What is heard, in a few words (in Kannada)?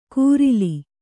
"ಕೂರಿಲಿ", Kannada